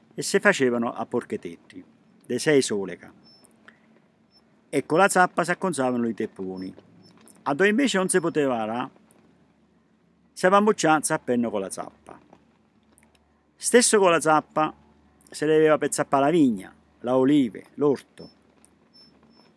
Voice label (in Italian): Semina dialetto